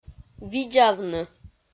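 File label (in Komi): "видзавны", Komi, female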